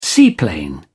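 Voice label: "seaplane", British English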